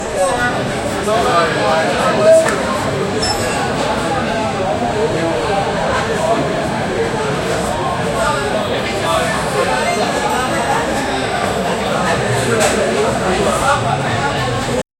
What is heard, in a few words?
people talking in a busy, bar